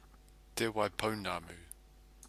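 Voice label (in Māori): "te wai pounamu", Māori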